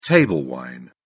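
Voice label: "table wine", British English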